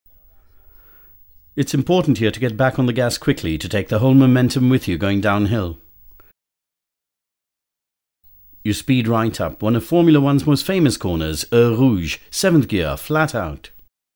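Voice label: male voice over; F1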